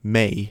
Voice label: "may", Spanish; Phoneme e

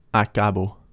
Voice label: "acabo", Spanish, pronunciation; phoneme a

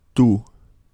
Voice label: "tu", Spanish; pronunciation; phoneme u

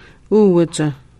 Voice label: uuwaca metal money, Hidatsa

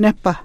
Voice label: néhba belly button, Hidatsa

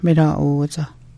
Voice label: miraʔuuwaca stove, Hidatsa

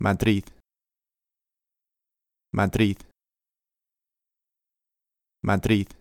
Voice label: "madrid", Spanish; Consonants

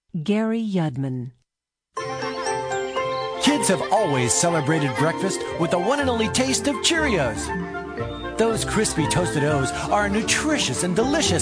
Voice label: commercial, voiceover

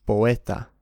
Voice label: "poeta" correct, Spanish; Allophones; o vs a

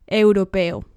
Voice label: "europeo", Spanish; Hiatus vs Diphthong

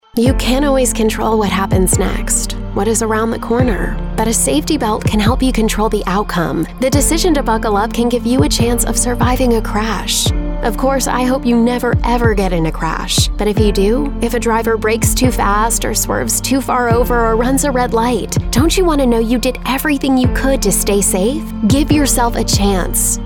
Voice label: teen seatbelt radio; mixdown

